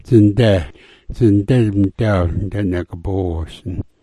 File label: "dzinda", smalgyax